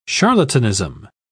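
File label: "charlatanism", British English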